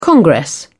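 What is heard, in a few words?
"congress", British English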